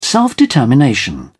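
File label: "self-determination", British English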